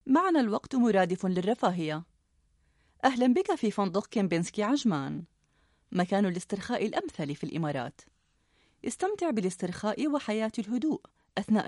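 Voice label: Arabic female Commercial; voice over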